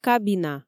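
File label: "кабина", Komi, female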